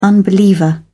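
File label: "unbeliever", British English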